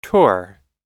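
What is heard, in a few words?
"tour", English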